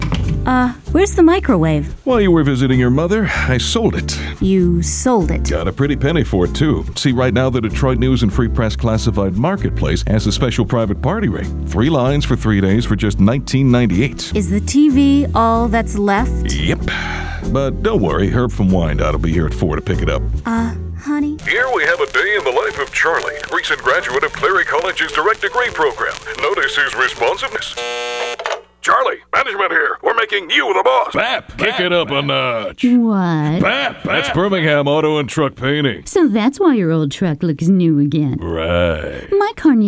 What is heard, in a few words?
Character